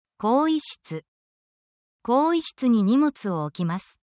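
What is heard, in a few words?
Japanese word, essential Japanese phrases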